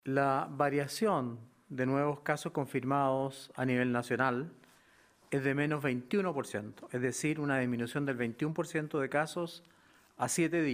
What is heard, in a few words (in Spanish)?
cuña